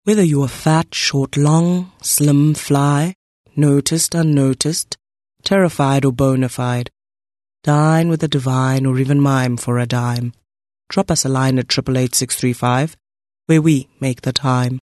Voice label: soft sell